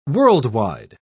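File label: "world-wide", British English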